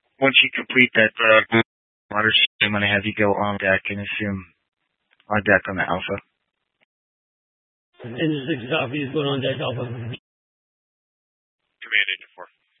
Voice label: Incident Tac 1, scanner